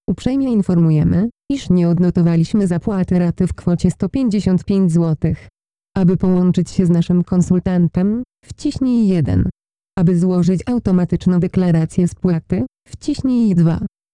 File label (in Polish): synteza